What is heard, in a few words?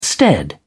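"stead", British English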